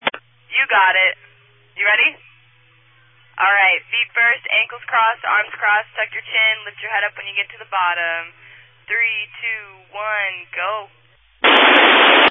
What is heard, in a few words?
Family Radio Service (FRS)